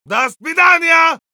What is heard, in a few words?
Heavy thanks, es